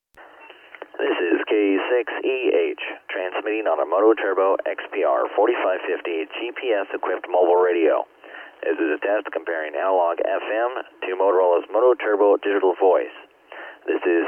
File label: DMR Decoded; Digital Mobile Radio; DMR stands for Digital Mobile Radio, and it is an open standard created by the ETSI for professional mobile radio users worldwide.